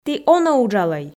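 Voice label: "ті онӧ уджалӧй", Komi, female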